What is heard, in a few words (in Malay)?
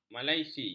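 "malaise", Malay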